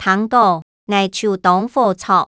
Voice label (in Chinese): 聽著，𠊎就當火著, 客語